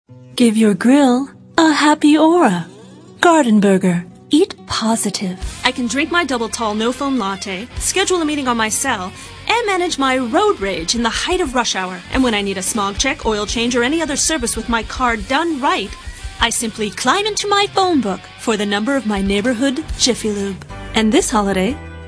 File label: female late20s late30s clear